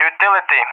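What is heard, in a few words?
"utility", English, Russia; Target; DCS; Allied Flight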